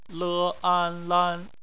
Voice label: "lan", Chinese; phonetics; bpmf

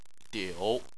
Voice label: "diu3", Chinese

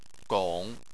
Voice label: "gong3", Chinese

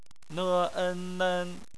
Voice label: "nen", Chinese; phonetics

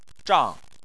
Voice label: "zhang4", Chinese

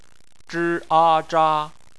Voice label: "zha", Chinese

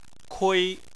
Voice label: "kui1", Chinese

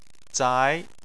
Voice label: "zai2", Chinese

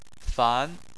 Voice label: "fan2", Chinese

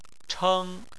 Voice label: "cheng1", chinese

